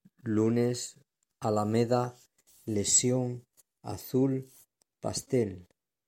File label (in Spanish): sonido de la l